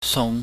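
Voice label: "sung", Cantonese, mid level tone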